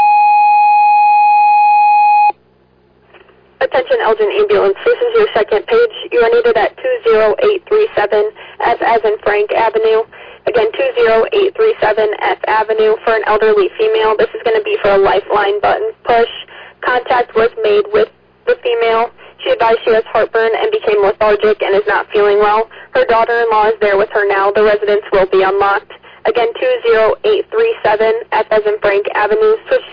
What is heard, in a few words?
Fire, dispatch; Elgin